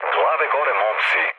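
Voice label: Serbian near waypoint mood low; speech; War Thunder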